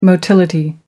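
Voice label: "motility", British English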